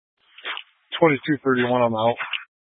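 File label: Sheriff Dispatch, Scanner audio recording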